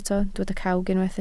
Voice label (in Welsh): "cawg", Welsh